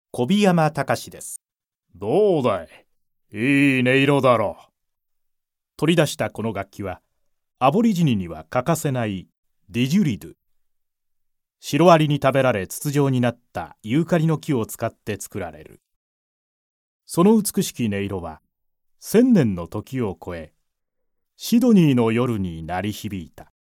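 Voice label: male storytelling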